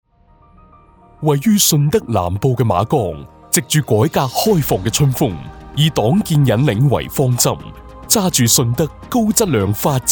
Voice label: 粤语 专题; 顺德马冈

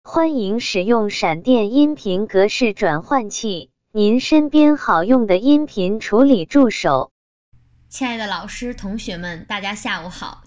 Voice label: 校园 广播

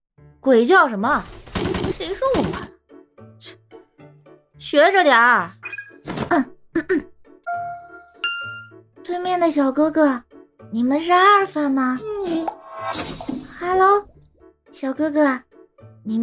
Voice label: 打游戏的假小子

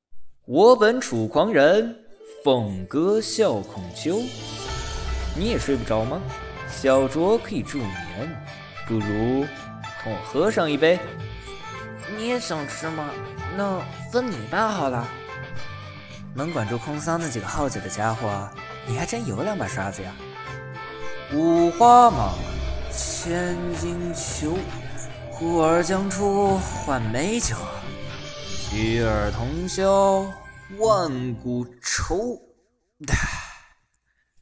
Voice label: 一人三角色; 食物语

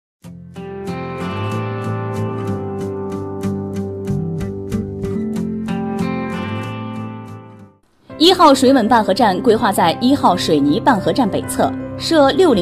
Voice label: 宣传; 济青高速扩建工程

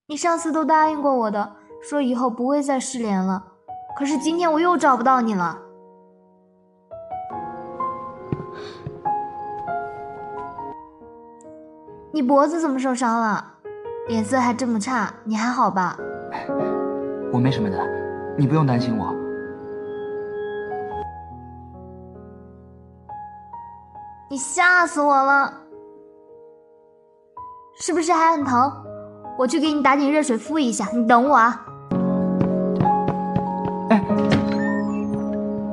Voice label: 角色配音

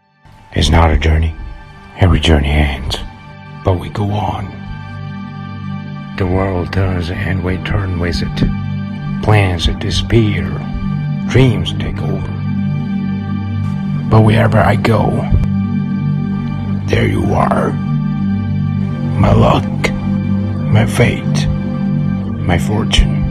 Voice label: 香奈儿 英文 广告词

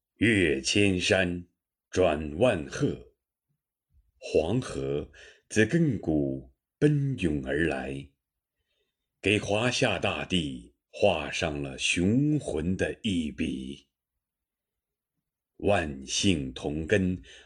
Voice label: 纪录片; 黄河

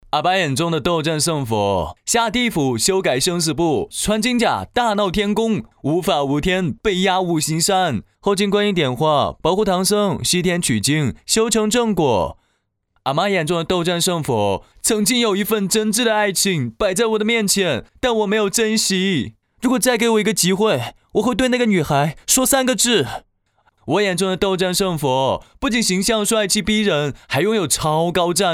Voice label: 台湾腔; 悟空

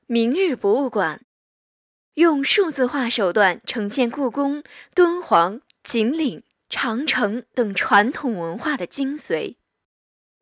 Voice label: 博物馆 旁白

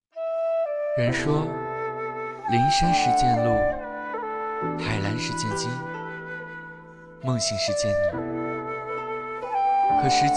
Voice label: 温文尔雅公子音

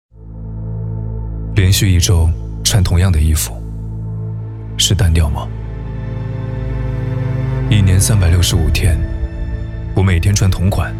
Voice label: 高端男大气自然; 湖南卫视致敬八一

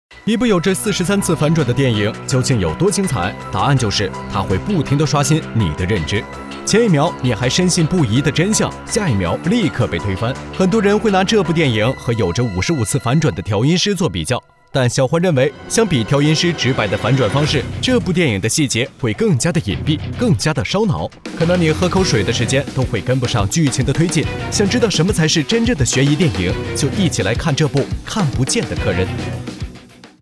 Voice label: 抖音解读电影; 看不见的客人

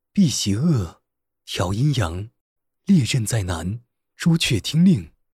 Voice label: 角色男; 百里守约

